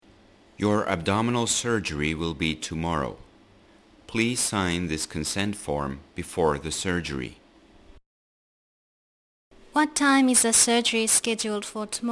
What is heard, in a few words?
Conversation